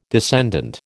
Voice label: "descendant", American